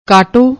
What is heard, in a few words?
"kato", Punjabi; ਕਾਟੋ